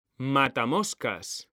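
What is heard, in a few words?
"matamoscas", Spanish, male; mekanta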